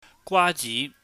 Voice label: "gwaji", Cantonese